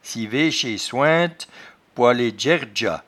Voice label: "civechesointe", patois jura

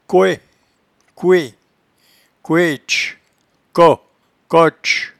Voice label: "coecouet", patois jura

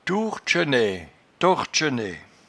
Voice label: "touertchenaie", patois jura

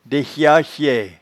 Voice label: "dechachaie", patois jura

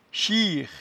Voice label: "chire", patois jura

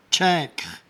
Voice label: "tchaincre", patois jura

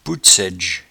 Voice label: "poutsaidge", patois jura

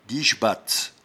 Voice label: "diechbatz", patois jura

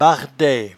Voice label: "bardaie", patois jura

